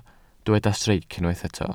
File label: "streic", welsh